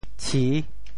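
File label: "tshi6", Teochew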